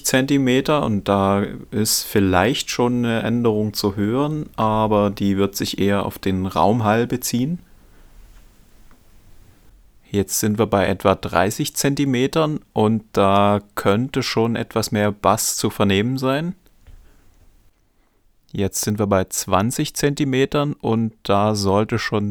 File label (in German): img stageline ecms-50usb nahbesprechung